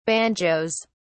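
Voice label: "banjoes", British English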